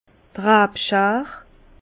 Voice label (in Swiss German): "rabschar", Bas Rhin; vin; serpette